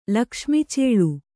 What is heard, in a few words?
"ಲಕ್ಷ್ಮಿಚೇಳು", kannada